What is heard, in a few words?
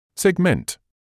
"segment", English